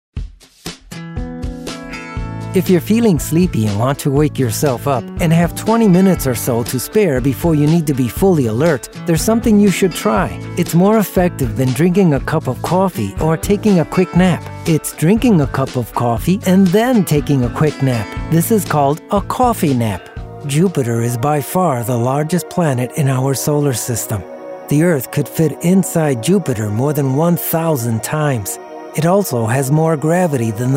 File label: narration